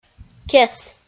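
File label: "кес", Komi, female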